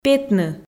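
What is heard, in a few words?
"петны", Komi, female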